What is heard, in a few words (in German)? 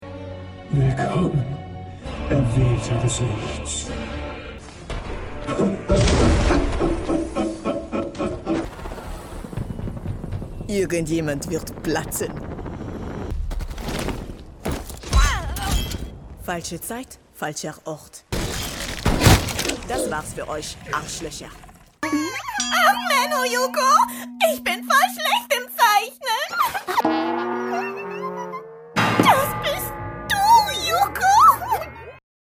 Game und Anime